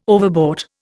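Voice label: "overbought", Indian English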